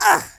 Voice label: oh dear, French Lover; speech

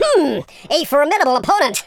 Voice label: ouch, Super Hero; speech

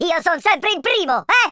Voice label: first blood, speech Italian

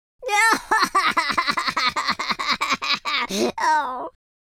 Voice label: sad, Scotsman; speech

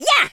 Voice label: jump, speech, Super Hero

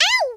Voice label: oof, Southern Belle; speech

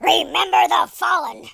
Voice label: "oh dear", speech

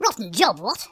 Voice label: "coward", speech; Biggles

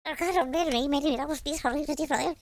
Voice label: pray, Irish; speech

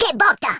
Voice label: bummer, Italian

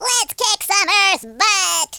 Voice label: yes sir, speech, Alien; Worms3D

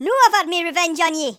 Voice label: revenge, Geordie, speech

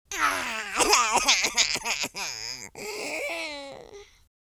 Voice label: sad, Horror

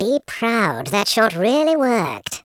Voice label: "amazing", Patronising speech

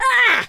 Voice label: "ouch", London Cabbie; speech

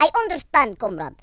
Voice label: yes sir, Russki

